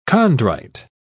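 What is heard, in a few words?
"chondrite", British English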